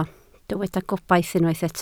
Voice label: "gobaith", Welsh